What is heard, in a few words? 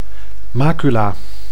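"macula", Dutch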